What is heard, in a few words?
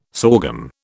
"sorghum", British